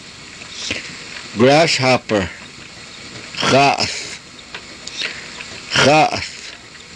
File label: "xaath", karuk